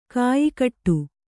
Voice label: "ಖಯಖೋಡಿ", Kannada

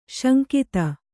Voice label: "ಶಂಕಿತ", Kannada

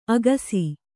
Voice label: "ಅಗುಸೆ", Kannada

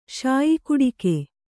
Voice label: "ಶಾಯಿಕುಡಿಕೆ", Kannada

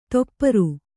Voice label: "ತೊಪ್ಪರು", Kannada

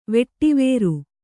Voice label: "ವಿಡ್ವರ", kannada

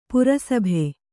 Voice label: "ಪ್ರಸಭ", Kannada

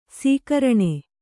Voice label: "ಸಕಾರಣ", Kannada

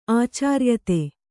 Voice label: "ಆಚಾರ್ಯತೆ", Kannada

